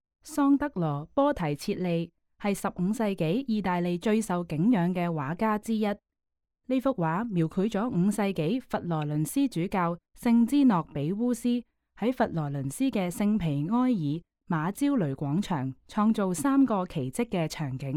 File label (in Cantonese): Canto; 聖芝諾比烏斯的三個奇跡; 桑德羅．波提切利（約1445–1510年）; 約1500年; 木板蛋彩畫，64.8 x 139.7厘米; 英國國家美術館; 從波提切利到梵高：英國國家美術館珍藏展